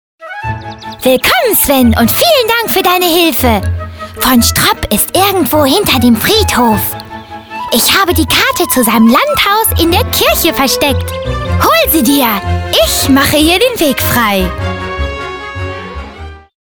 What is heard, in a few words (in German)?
Trickstimme